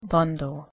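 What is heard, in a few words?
"bundle", English pronunciation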